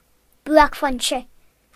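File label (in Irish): "peak", Irish; gcompris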